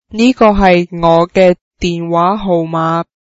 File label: this is my phone number, cantonese